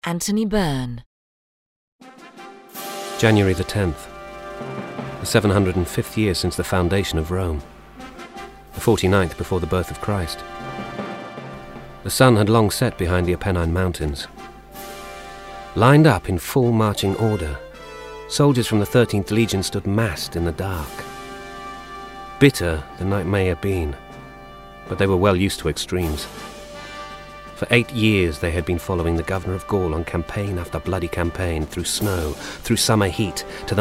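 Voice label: narration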